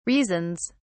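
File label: "reasons", British English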